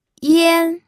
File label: "yan1", pinyin